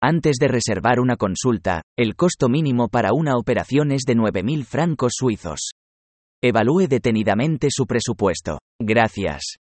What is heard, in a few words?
Vocal pop up spanish